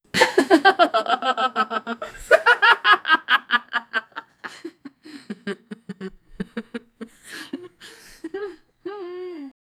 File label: one teen student boy and